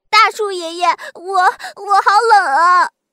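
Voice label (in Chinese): 角色可爱男童; 懒羊羊模仿可爱男童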